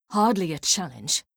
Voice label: Female; Skyrim Special Edition; Dawnguard Beleval